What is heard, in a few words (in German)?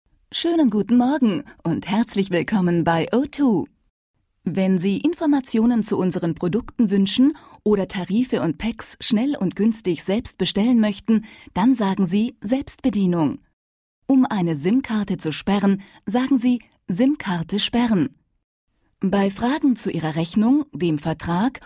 Sprachdialogsystem